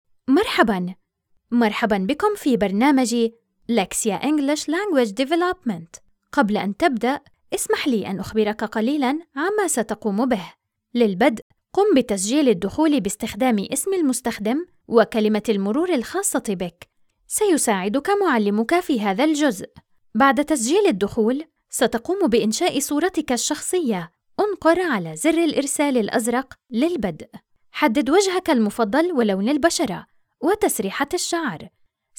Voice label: E learning kids Arabic